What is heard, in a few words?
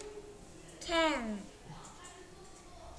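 "can", spoken word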